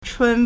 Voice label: "chuān", Chinese